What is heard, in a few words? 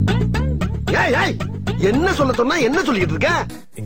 tamil funny dialguo